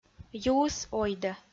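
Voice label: "юыс ойдӧ", Komi, female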